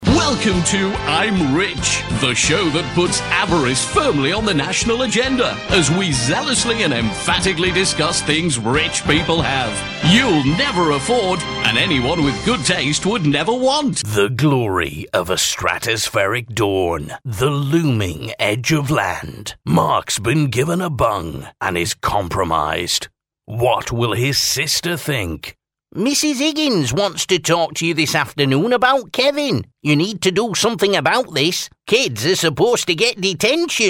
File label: character voice